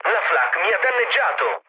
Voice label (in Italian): damaged aa, mood low, speech it; War Thunder